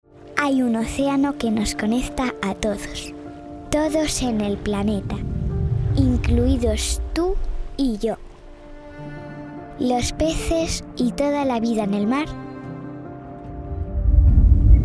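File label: Spanish Female Kid Voice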